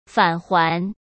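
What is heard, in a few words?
"返还", Mandarin Chinese; hsk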